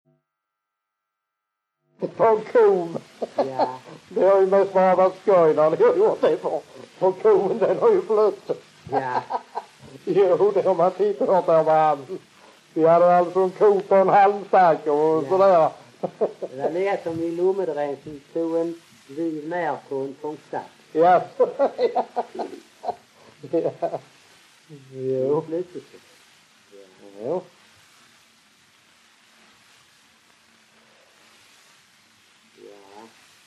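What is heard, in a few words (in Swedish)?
Raus Skåne, Svenska sagor dialekter; Folkminnen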